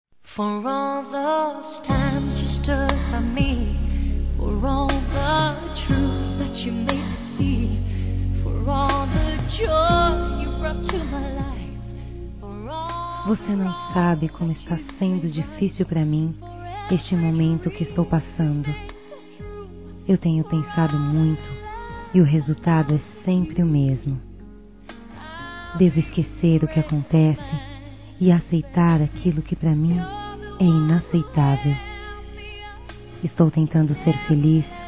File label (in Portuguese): TERMINANDO NAMORO, fem